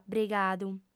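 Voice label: "brigadu", female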